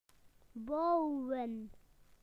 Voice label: "to build", BE; PictureDictionary

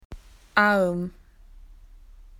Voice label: arm, spoken AU; PictureDictionary

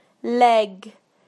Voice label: "leg", UK; PictureDictionary

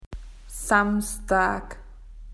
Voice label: "Saterday", AU; PictureDictionary

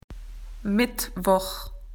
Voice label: "Wednesday", AU; PictureDictionary

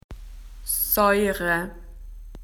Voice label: "sour", AU; PictureDictionary

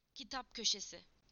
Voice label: "book corner", TU; PictureDictionary

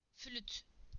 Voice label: "whistle", TU; PictureDictionary

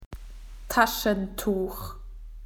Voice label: "tissue", AU; PictureDictionary

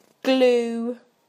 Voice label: "glue", UK; PictureDictionary

